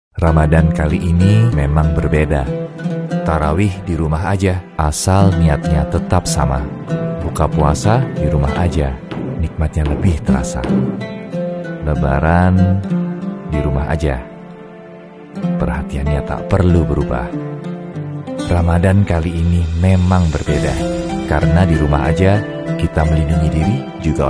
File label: indonesian